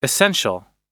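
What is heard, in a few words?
"essential", English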